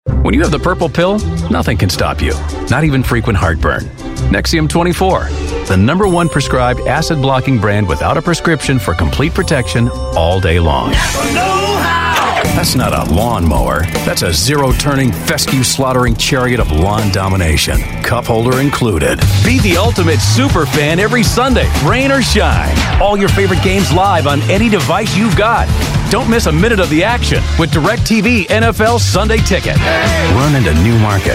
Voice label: US English commercials

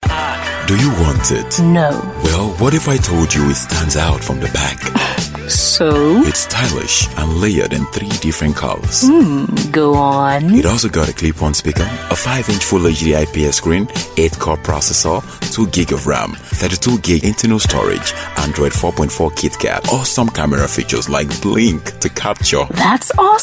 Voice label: English African, African Male; Lenovo

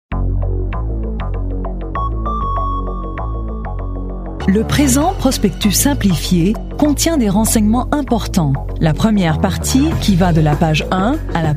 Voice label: European French

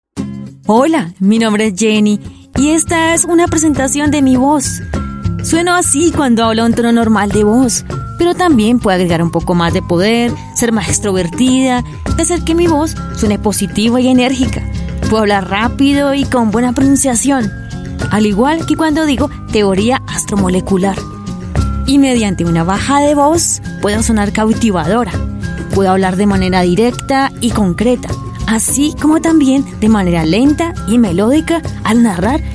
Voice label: Latin Spanish Colombia, Female

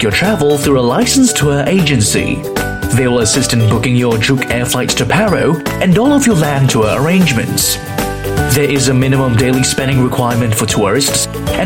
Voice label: Asian English How To Get To Bhutan

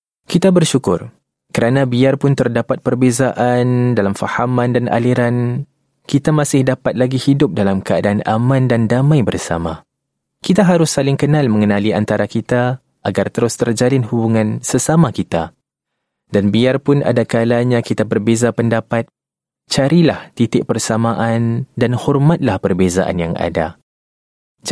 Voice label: Malay

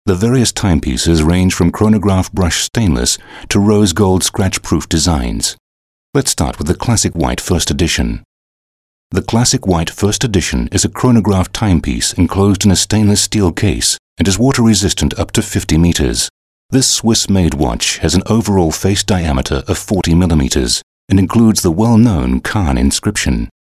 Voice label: AU English Corporate

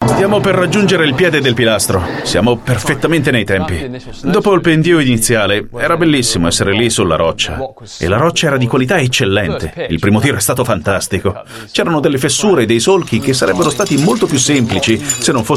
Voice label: Italian

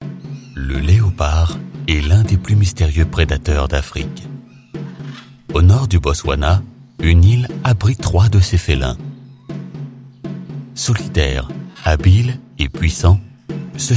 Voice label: French Documentary

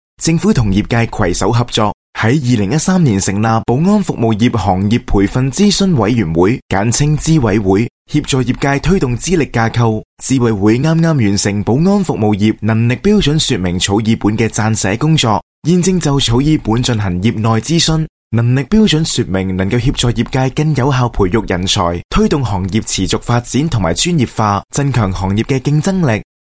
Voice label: Cantonese; HKQF

